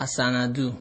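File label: "asignado", Nigerian Pidgin, male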